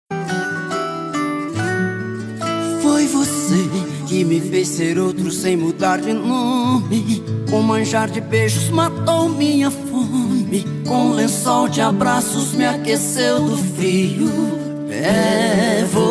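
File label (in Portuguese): birthday ALGUEM ESPECIAL female, telemensagem; romantica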